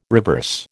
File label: "reverse", American